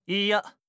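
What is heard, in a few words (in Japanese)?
"いや", Japanese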